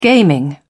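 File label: "gaming", English, British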